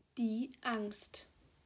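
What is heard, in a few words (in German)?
"Angst", German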